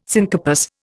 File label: "syncarpous", Indian